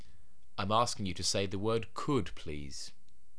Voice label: "could", SSBE